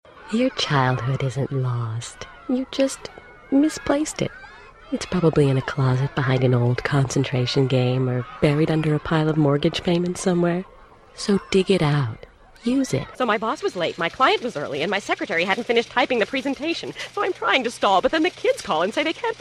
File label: gentle